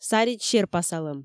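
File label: Komi, female; саридз серпасалӧм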